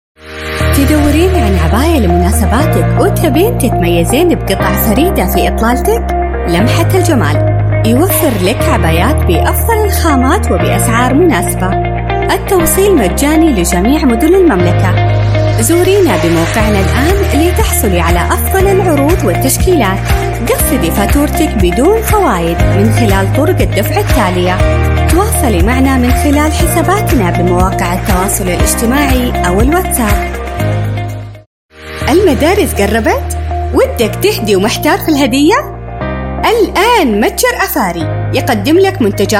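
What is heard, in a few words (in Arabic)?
Arabic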